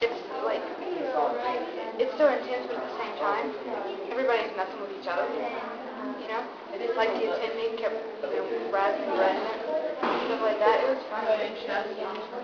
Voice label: girls talk